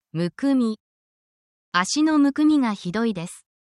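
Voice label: Japanese word, essential Japanese phrases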